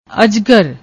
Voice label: "ajgar", Punjabi; ਅਜਗਰ